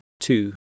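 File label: "two", English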